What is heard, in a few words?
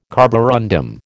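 "carborundum", American English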